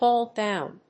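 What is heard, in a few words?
"haul down", English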